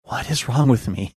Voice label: quietly asking what is wrong with me